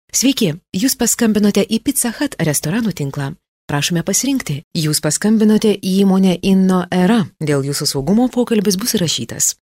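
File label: Lithuanian narration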